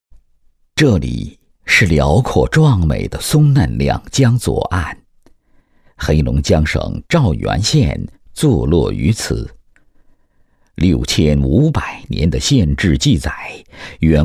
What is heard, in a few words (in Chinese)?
男, 人物宣传片; 高端内涵; 质感磁性; 模仿任志宏